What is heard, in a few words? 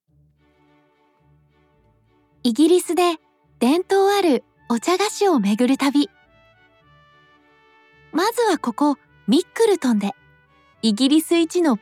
japanese tv shows